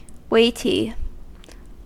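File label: "weighty" English, US; pronunciations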